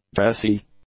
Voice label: "dressy", English; adjectives